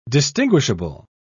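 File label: "distinguishable", British English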